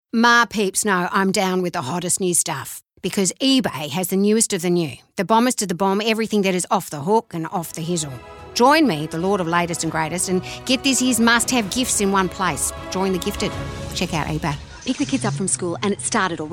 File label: character; EM Voices